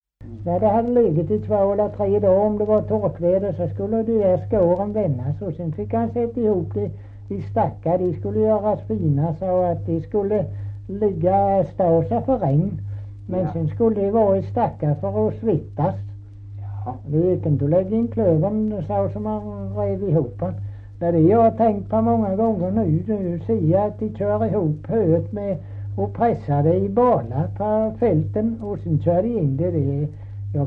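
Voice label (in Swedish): Kristianopel Blekinge, dialekter; Folkminnen; Svenska sagor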